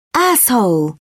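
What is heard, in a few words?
"asshole", British English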